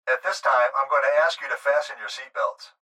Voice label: Pilot Voice Seatbelt